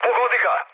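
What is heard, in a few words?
Serbian attack position mood med, speech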